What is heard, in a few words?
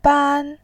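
"ban", Mandarin Chinese, tone 1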